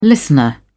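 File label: "listener", British English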